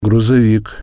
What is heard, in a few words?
"truck", Russian